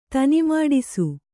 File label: "ತನಿಮಾಡಿಸು", Kannada